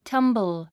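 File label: "tumble", English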